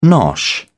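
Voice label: "nosh", British English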